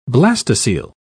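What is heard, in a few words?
"blastocoele", British English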